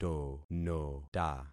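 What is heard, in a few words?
"tonota", concatenated syllables; Affixing experiment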